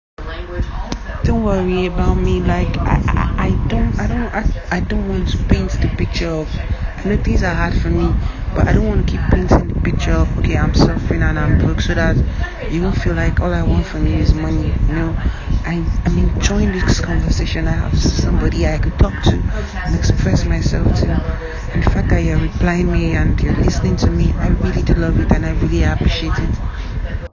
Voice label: audio Message